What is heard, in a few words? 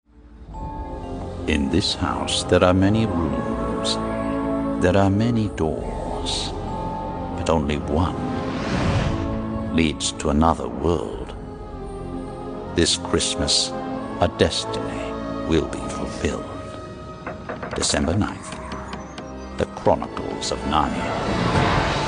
British